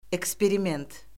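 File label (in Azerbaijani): "eksperiment", Azerbaijani; Orfoqrafiya Lüğəti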